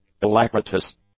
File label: "alacritous", English; adjectives